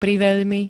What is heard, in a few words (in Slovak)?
"priveľmi", Slovenské